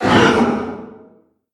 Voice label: vox pain

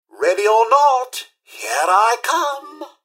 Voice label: generic robot combat to lost; mrhandy; npc

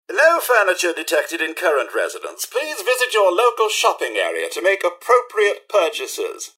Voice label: robot talk; mrhandy

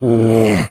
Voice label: chatter, morgue; doom3

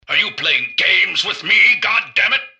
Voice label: generic robot alert idle; npc; mrgutsy

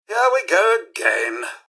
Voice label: generic robot alert to combat; mrhandy; npc

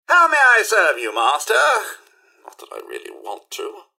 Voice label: hello, robot; mrhandy

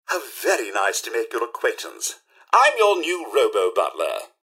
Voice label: robots dialog hello; mrhandy; npc

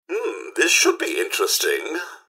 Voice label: observe combat, robot; mrhandy

